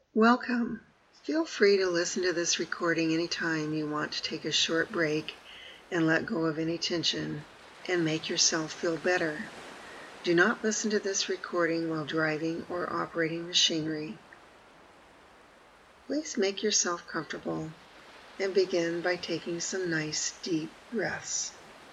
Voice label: Autogenic With Ocean Waves